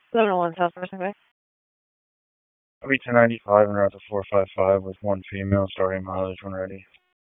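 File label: Police Southeast District Dispatch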